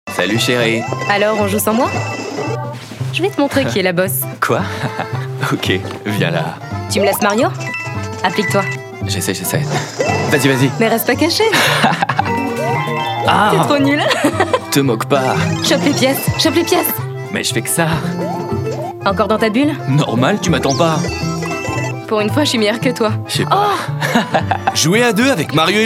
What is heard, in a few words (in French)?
character, french voice over; Nintendo